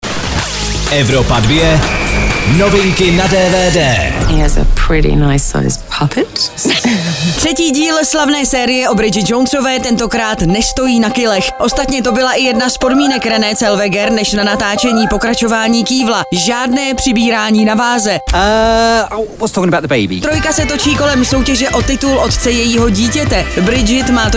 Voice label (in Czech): dvd news; dite bridget jonesove